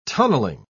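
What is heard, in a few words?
"tunnelling", British English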